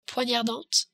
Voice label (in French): "poignardante", french